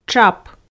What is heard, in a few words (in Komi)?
"тшап", Komi